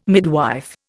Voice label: "midwife", Indian